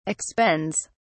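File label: "expends", English, British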